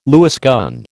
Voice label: "Lewis gun", American